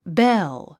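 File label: "bell", English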